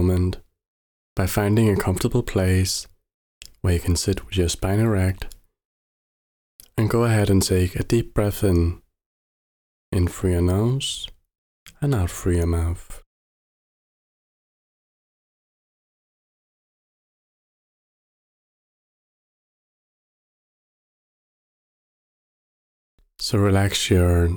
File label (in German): Anahata meditation kurz; anahata chakra